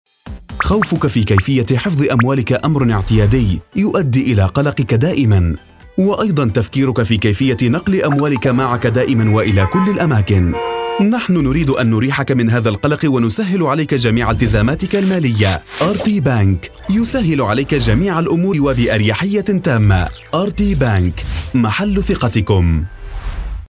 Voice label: arabic commercial

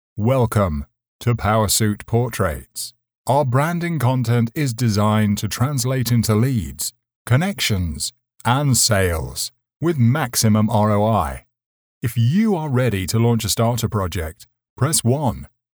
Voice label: english british ivr

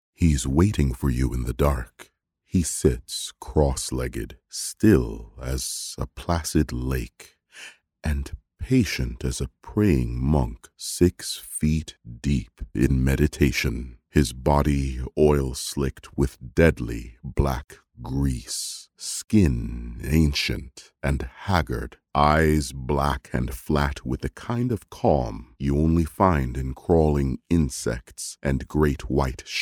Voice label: english canadian narration

